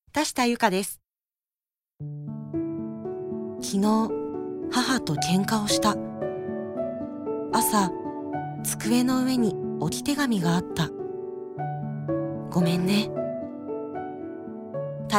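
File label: commercial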